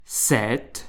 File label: number 7, French